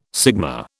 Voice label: "sigma", British